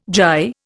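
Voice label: "gyre", Indian English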